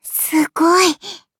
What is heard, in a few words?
victory, ja; Cookie Run